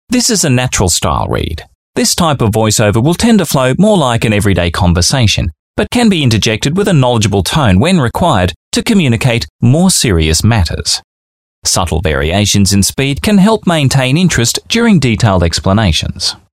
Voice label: on hold messages male